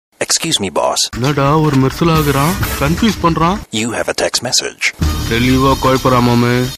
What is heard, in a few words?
Tamil Funny Dialogue